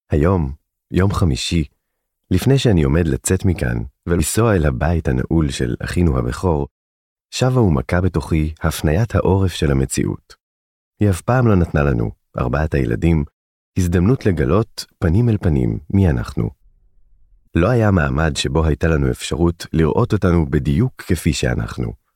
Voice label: Hebrew audiobooks